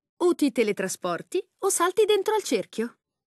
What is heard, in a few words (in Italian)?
lang it it, voiceovers